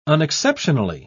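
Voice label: "unexceptionally", British English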